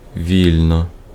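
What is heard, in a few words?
Ukrainian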